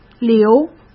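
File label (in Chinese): "流", Mandarin Chinese; chinese flashcards; hsk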